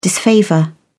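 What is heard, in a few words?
"disfavour", British English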